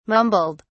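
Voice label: "mumbled", British English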